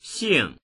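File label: "xing4", Mandarin Chinese; 姓; （～という）姓である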